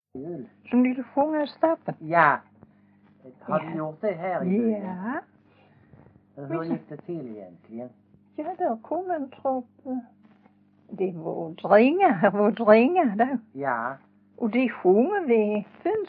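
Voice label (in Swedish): Everöd Huaröd Skåne, dialekter; Folkminnen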